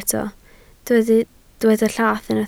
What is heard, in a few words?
"llath", Welsh